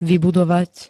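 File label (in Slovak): "vybudovať", Slovenské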